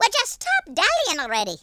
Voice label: "hurry", Irish; speech